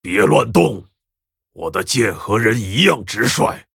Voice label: Male Orc Ogre Threaten, zh

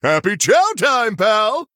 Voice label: Male Human Greetings; en

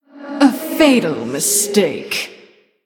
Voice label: Oops, Female Human; en

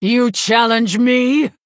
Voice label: Attack, Female Human; en

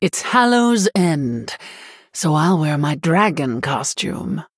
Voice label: Happy Halloween, Female Human

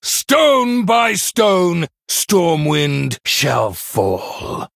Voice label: Male Human Play; en

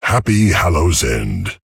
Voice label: Male Tauren, HAPPY HALLOWEEN

